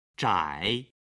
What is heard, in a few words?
"zhai", Chinese